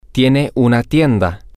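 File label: male, spanish; she has a store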